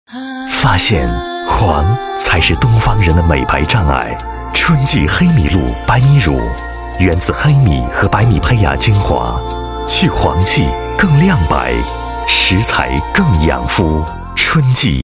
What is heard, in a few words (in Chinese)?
男 广告 化妆品广告, 国语男声配音; 春纪